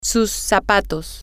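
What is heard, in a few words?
shoes, Spanish, female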